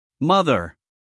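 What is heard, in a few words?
"mother", American English, male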